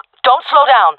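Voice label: round winning, jungle female; vo; Counter Strike Model Pack; CS:GO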